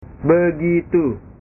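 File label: "begitu", Indonesian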